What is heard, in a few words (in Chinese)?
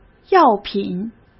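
"药品", Mandarin; chinese flashcards; hsk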